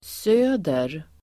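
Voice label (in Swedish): "söder", Swedish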